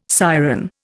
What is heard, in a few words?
"siren", Indian